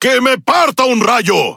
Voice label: Es Speech Quick Commands Caramba; World Of Warships

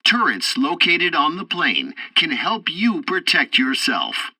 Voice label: tutorial bomber turrets, english; War Thunder

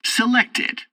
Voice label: tutorial fighter fight, english; War Thunder

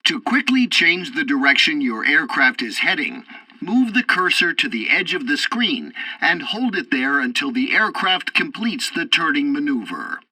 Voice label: tutorial fighter ground fight, english; War Thunder

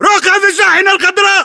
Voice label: order cover truck green, battle chatter, english

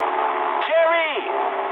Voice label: eng enemy detected mood med, speech eng

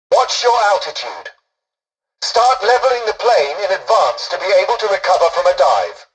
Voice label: tutorial dialogue, english; War Thunder

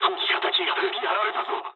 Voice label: aircraft destroyed mood low, speech jp

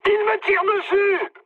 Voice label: aircraft damaged mood high, speech fr; War Thunder

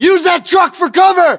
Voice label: order cover truck, US

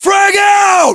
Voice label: US inform attack grenade, battlechatter, english

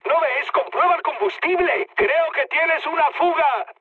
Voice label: tank damaged, mood high, speech; War Thunder

